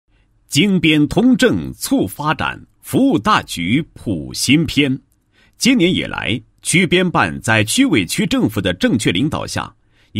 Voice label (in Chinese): 男, 政府报告; 精编通政促发展 服务大局谱新篇